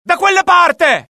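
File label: alert, Italian; mohaa; dialogue; sighted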